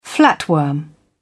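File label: "flatworm", British English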